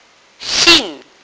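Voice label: "xin4", Mandarin Chinese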